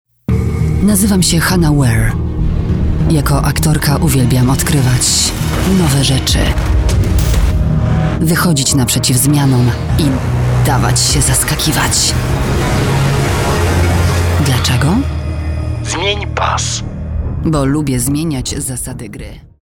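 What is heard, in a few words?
Polish, female, commercial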